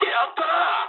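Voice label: player killed enemy mood med, speech japanese; War Thunder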